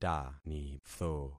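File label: "tanitho", concatenated syllables; Affixing experiment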